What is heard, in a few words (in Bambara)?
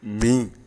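"min", Bambara word; actions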